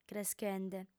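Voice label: "creschende", female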